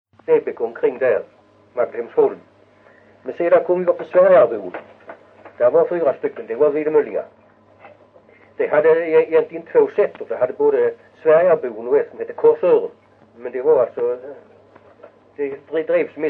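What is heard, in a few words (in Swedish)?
Vitaby Skåne, dialekter; Folkminnen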